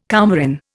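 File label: "coumarin", Indian English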